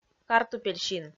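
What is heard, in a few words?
"картупель син" Komi, female; kpv audio dict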